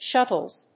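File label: "shuttle S", English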